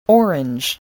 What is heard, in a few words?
"orange", English voice